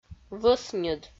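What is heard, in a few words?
"вӧсньыд", Komi, female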